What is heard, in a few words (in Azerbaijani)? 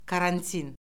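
"karantin", Azerbaijani; Orfoqrafiya Lüğəti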